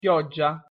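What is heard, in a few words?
"pioggia", Italian pronunciation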